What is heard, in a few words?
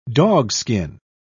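"dogskin", British English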